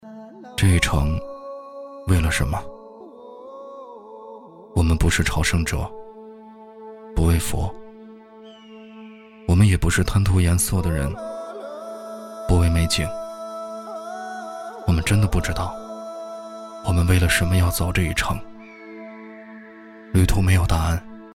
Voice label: 男 旁白 磁性走心旁白

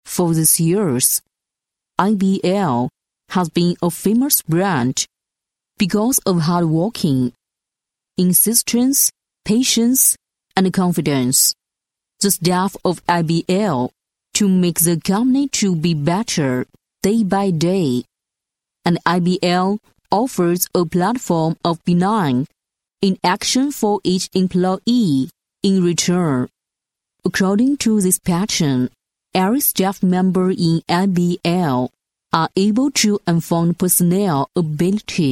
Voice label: 英女, 品牌广告, 舒缓企业介绍; IBL; 成熟知性

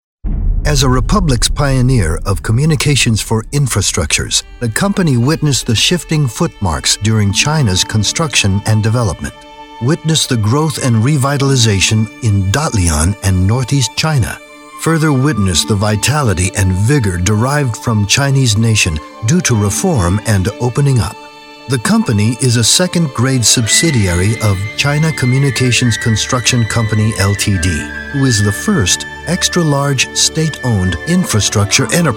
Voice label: 外籍男; China Communications

